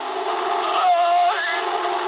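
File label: damaged pilot mood high, speech English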